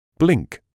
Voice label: "blink", medical english uk

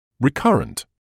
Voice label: "recurrent", English

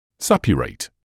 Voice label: "suppurate", English

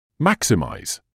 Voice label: "maximise", English

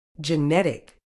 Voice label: "genetic", medical English

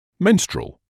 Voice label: "menstrual", English

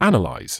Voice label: "analyse", medical english uk

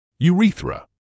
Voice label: "urethra", English

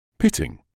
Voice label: "pitting", English

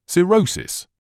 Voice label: "cirrhosis", medical english uk

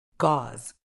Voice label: "gauze", medical English

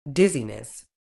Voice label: "dizziness", uk

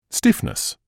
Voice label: "stiffness", English

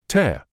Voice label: "tear", English

